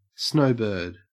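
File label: "snowbird", Australian English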